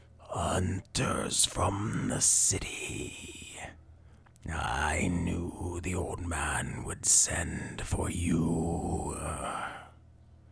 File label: Shape-shifting antagonist with primal, animalistic menace and twisted druidic powers, Audiobook Villain; Changeling